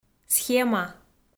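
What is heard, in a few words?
"схема", Komi-Zyrian, female; audio dict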